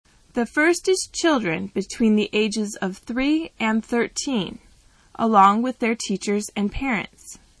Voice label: M